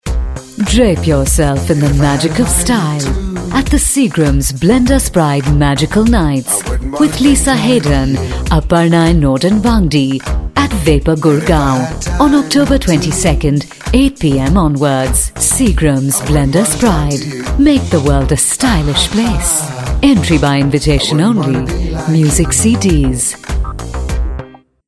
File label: english, female voice; blenders pride